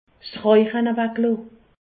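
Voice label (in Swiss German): "rauche", Alsatian; medecine; supprimer le tabac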